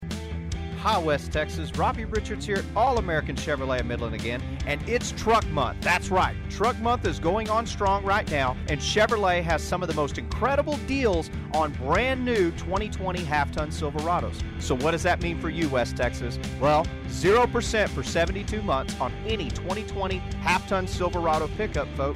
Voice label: Radio; Chevy Midland March